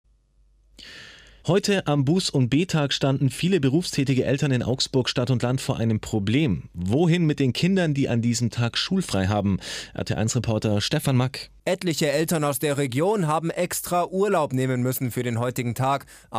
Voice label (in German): Nachrichten; RT1